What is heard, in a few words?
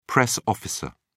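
"press officer", British English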